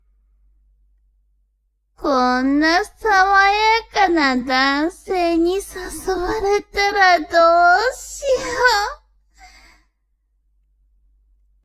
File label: woman